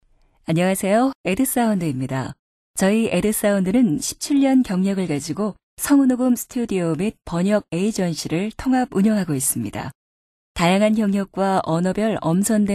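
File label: Korean, female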